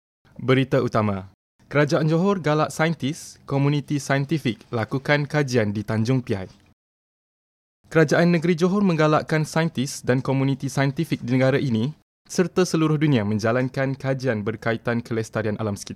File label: news